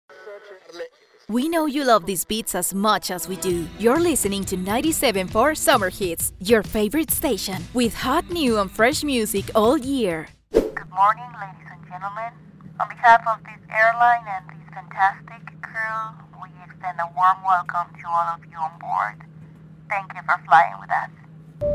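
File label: Latin American Spanish, Accented English; GoLocalise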